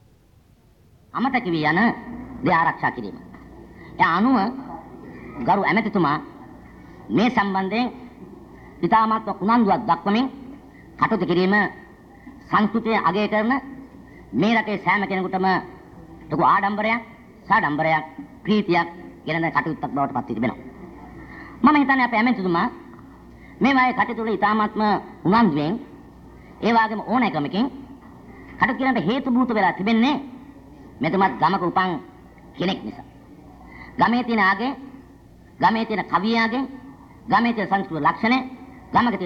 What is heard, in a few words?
MINISTER SPEECH